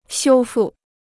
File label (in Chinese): "修复", Chinese